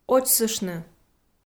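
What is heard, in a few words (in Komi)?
"очсыштны", Komi, female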